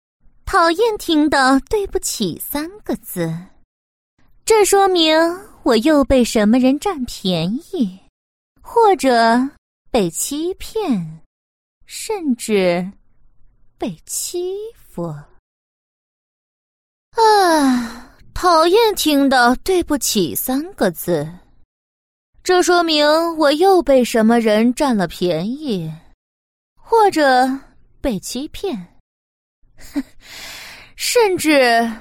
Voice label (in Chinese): 女 模仿, 女王、熟女、老婆婆、妖气、天真等各种女声